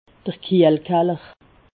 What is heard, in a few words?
"kiehlkaller", alsace